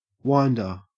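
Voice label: "winder", Australian English; pronunciations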